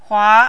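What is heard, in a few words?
"hua", second tone, Mandarin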